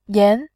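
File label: "yan", Mandarin Chinese, tone 2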